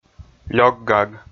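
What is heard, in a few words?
"лёкгаг", Komi, male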